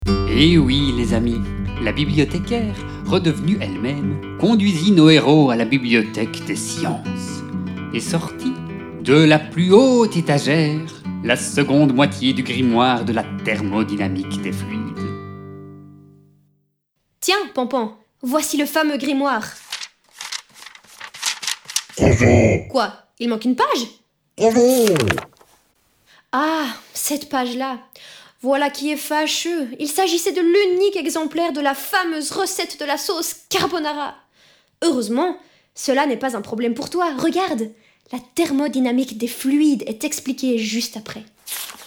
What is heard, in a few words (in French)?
NARRATION